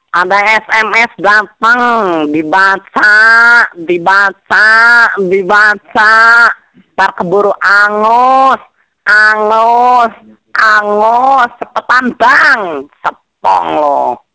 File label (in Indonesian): Suara bencong